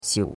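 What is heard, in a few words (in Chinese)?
"xiu3" Chinese; Cours de Chinois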